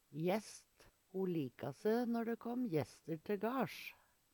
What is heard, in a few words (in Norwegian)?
jest, Numedalsmål